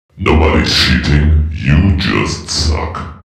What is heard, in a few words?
suck, announcer, male